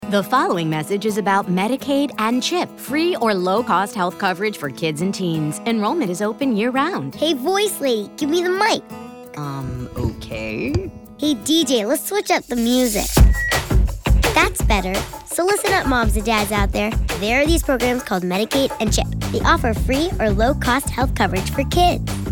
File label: National PSA Radio Spot (English)